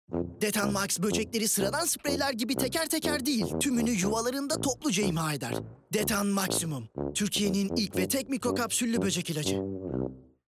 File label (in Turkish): kampanya, seslendirme